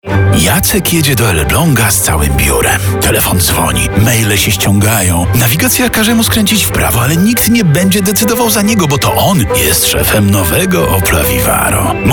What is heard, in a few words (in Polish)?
reklama; vivaro mobilne biuro